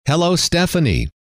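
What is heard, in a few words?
hello, male; trainer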